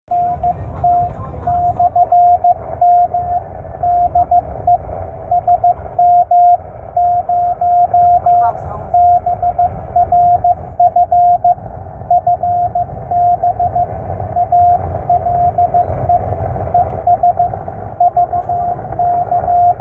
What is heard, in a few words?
QSO